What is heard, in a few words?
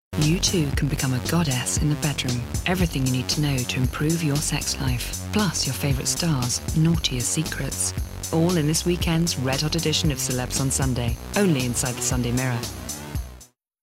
Sultry Velvety; Sunday Mirror